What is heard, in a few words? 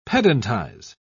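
"pedantize", English, British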